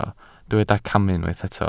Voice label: "camu", welsh

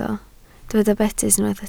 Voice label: "betys", Welsh

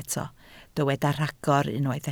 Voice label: "rhagor", Welsh

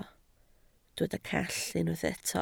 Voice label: "call", Welsh

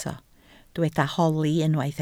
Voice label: "holi", Welsh

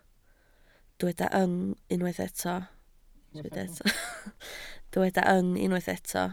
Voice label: "yng", Welsh

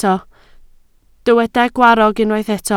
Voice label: "gwarrog", Welsh

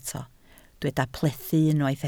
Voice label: "plethu", Welsh

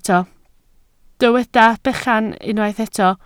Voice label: "bechan", Welsh

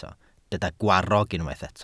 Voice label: "gwarrog", Welsh

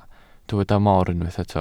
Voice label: "mor", Welsh

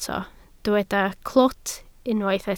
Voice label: "clwt", Welsh

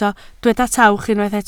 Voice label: "tawch", Welsh

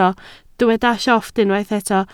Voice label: "llofft", Welsh

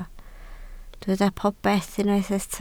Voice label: "popeth", Welsh

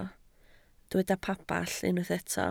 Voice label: "pabell", Welsh

